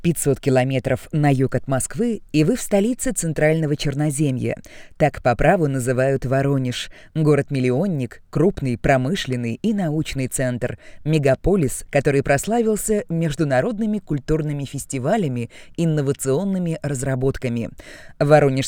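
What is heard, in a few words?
story, Russian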